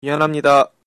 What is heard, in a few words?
sorry, Korean